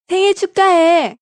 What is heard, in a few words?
Birthday Korean, Female; Holiday; VoiceBox